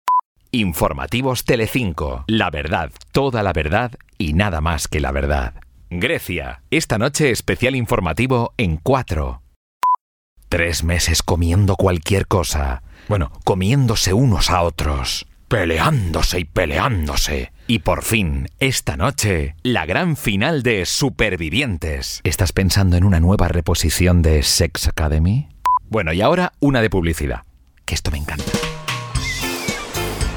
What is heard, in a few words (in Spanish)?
Locutor espanol